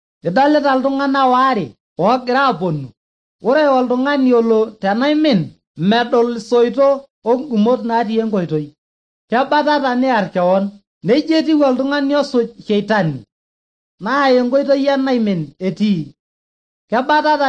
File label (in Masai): maasai bsv nt drama; good news; bible